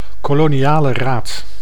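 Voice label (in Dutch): "Koloniale Raad", Dutch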